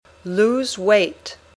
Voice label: "lose weight", English